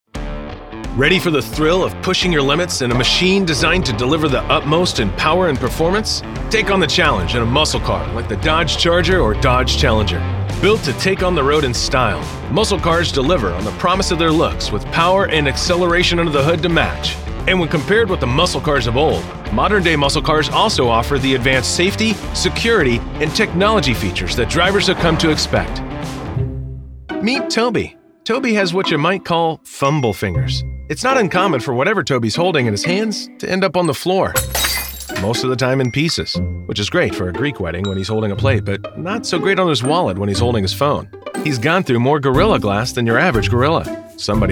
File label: english american explainer videos